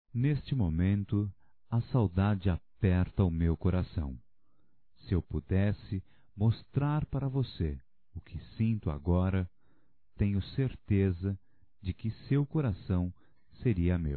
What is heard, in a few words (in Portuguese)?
Fim de Relacionamento Masculino, Telemensagens